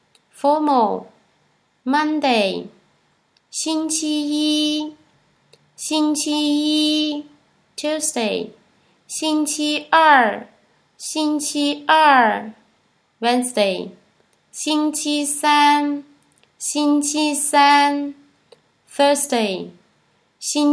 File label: chinese phrases mandarin week days